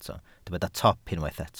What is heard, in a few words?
"top", Welsh